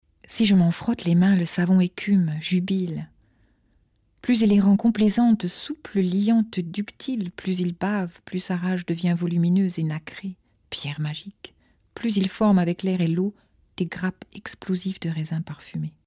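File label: französisch, French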